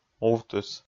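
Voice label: "увтыс", Komi, male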